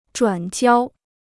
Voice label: "转交", Chinese

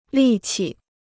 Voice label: "力气", Chinese

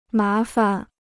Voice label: "麻烦", Chinese

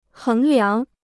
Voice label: "衡量", Chinese

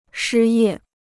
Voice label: "失业", Chinese

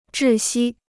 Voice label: "窒息", Chinese

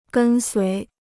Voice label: "跟随", Chinese

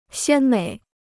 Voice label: "鲜美", Chinese

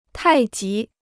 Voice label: "太极", Chinese

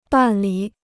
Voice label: "办理", Chinese

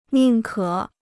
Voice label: "宁可", Chinese

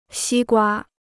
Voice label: "西瓜", Chinese

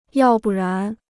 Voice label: "要不然", Chinese

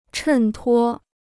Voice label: "衬托", Chinese

